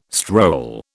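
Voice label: "stroll", British English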